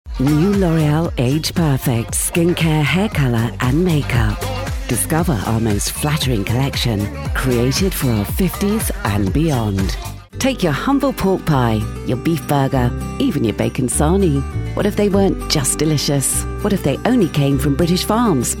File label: Commercials